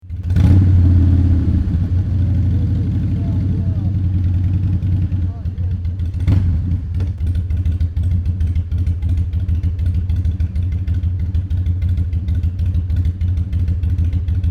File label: idle chat